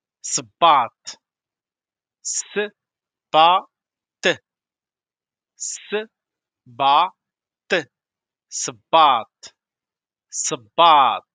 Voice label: shoes in moroccan arabic vocabulary darija